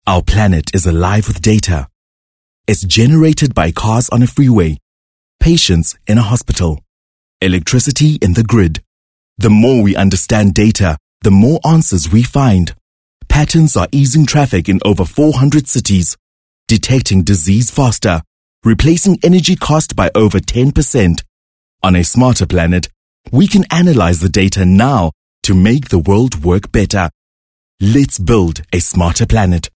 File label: English Corporate